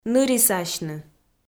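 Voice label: "нырисасьны", Komi, female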